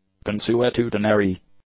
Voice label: "consuetudinary", English; adjectives

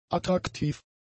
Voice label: "attraktiv", German; adjectives

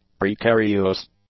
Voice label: "precarios", english; adjectives

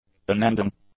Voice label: "unending", English; adjectives

